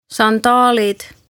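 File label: "Sandaalid", Estonian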